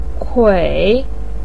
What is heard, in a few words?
"kui3", Chinese pinyin voice